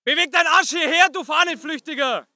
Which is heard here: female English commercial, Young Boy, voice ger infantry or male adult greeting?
voice ger infantry